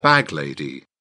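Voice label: "bag lady", British English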